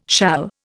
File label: "shall", Indian